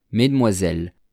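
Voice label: "mesdemoiselles", French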